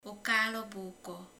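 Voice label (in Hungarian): "máj", Hungarian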